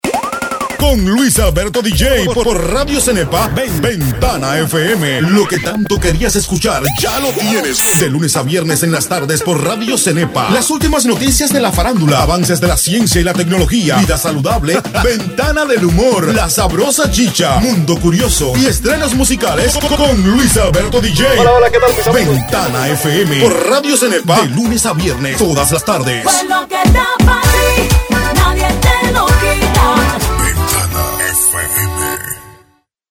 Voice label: promo; ventana fm